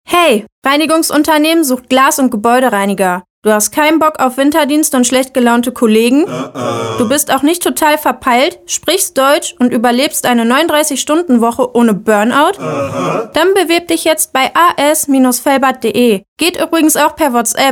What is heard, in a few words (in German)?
Reinigungsservice Spot; AS Reinigungsservice Velbert